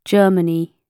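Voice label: "germany", British English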